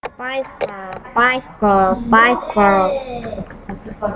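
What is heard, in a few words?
"bicycle", spoken word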